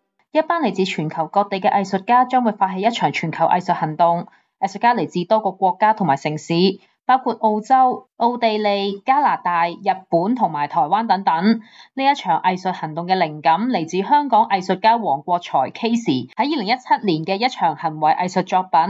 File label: cantonese; 全球藝術家連線「無聲抗議」 在澳港人響應：言論自由非必然; 「Everything is Fine無事 」; 雪梨和墨爾本; SBS Chinese